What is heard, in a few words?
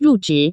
"ruzhi", Taiwan Chinese; job